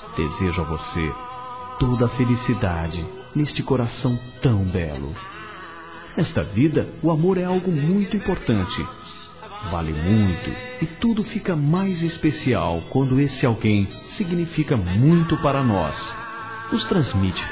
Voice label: ANIV. ROMANTICO M; Aniversários; masc

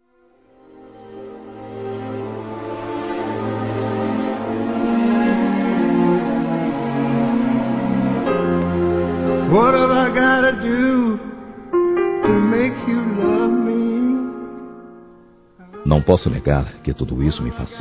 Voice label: Romântica Suave Masculino

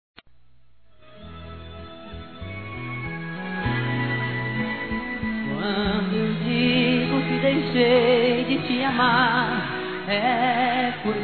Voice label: Aniversário Avó Infantil Feminino; Aniversários; Telemensagens

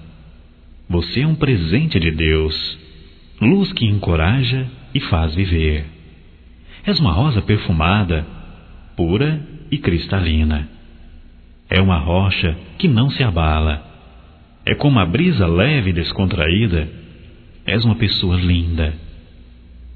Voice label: Aniv para mulher poesia; Telemensagens 2017; Aniversarios

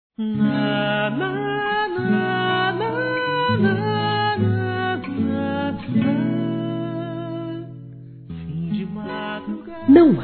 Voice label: Aniversário Pastor Feminino; Aniversários; ANIV. Evangelico

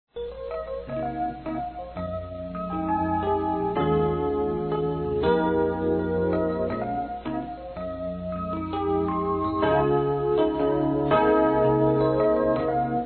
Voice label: RECONCILIAÇÃO F; fem

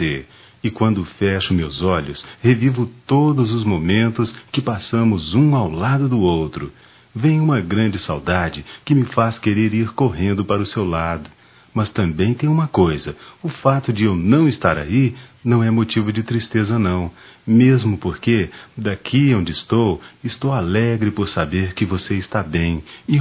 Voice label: filho mãe distante, masc; Dia das Maes; Telemensagens 2017

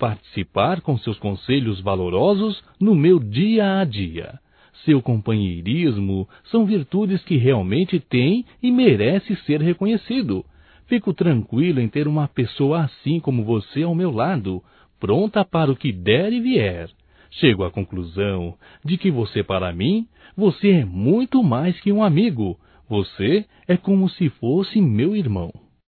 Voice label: AGRADECIMENTO AMIZADE, Só Voz, masc; Telemensagens; AGRADECIMENTOS

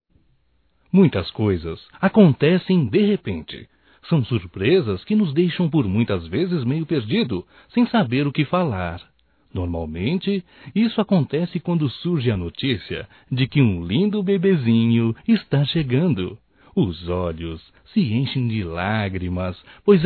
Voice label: GRAVIDEZ, Telemensagens, Românticas, masc

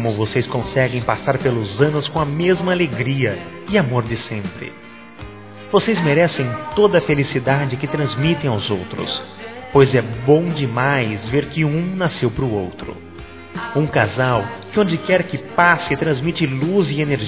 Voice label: Aniversário Casamento Geral Masculino, Telemensagens; Telemensagens 2017; Aniversários

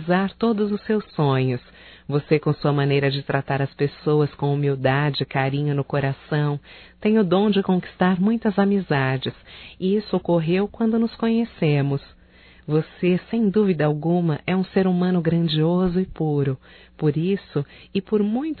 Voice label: Aniversário Amigo Nós Desejamos Feminino; Aniversarios; Telemensagens; Telemensagens 2017

